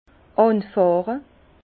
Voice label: "anfahre", Bas Rhin; vin; cavaillonner

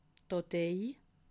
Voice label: "datei", alsace

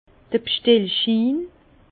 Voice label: "bstellschin", Bas Rhin; vin; bon de commande

